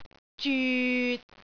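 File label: "zyut6", Cantonese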